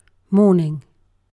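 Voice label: "morning", British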